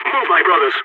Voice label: radio lets go, balkan